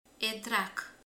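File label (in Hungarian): "szőlő", Hungarian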